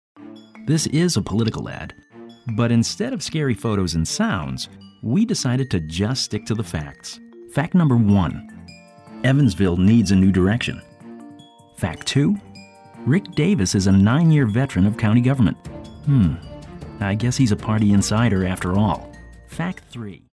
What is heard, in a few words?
Political Read